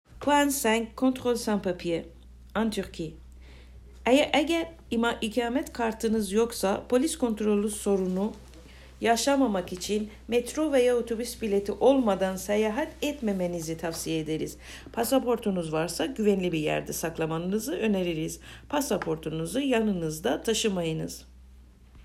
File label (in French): turc ctrl sans papier; Déplacements turc